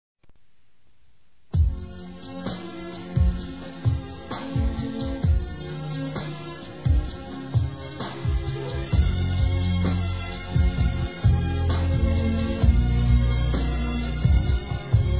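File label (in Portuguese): desculpa amizade masculino; Desculpas; Telemensagens 2017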